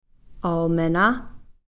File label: "almena", brown